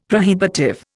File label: "prohibitive", Indian; Dictionary